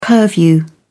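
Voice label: "purview", British English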